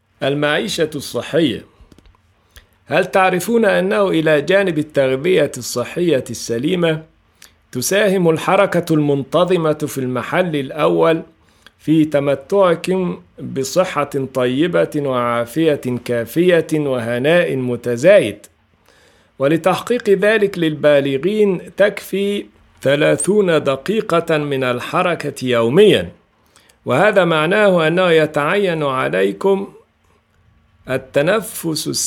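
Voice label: Arabic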